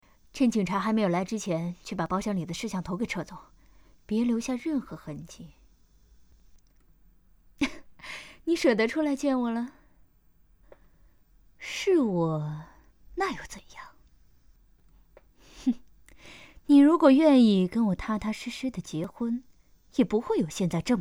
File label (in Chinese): 女播; 我家保镖很特别